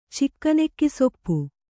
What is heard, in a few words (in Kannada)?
"ಚಿಕ್ಕನೆಕ್ಕಿಸೊಪ್ಪು", Kannada